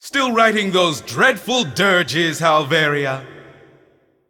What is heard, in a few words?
Male Void Elf Emote Start; Halveria